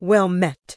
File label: Tauren Female Official NPC Greeting